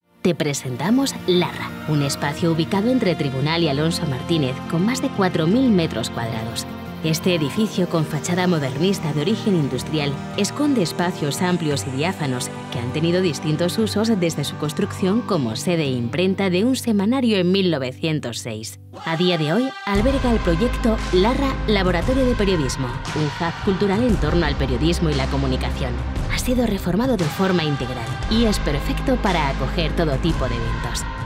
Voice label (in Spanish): corporativo con musica